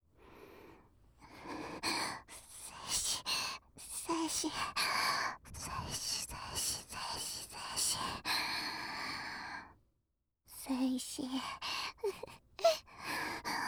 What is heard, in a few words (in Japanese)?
囁き淫語連呼; SEあり